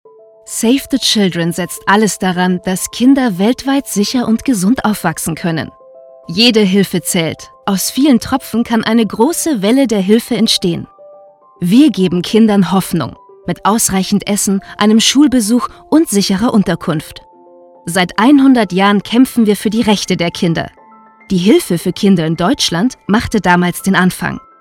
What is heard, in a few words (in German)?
TVSpot Jung